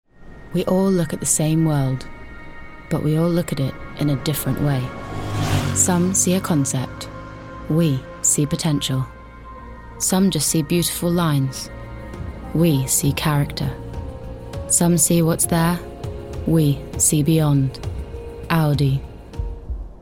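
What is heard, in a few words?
British English Commercial; GoLocalise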